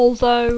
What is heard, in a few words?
"although", English